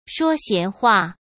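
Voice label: "说闲话", Mandarin Chinese; hsk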